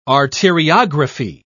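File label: "arteriography", English, British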